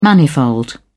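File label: "manifold", British English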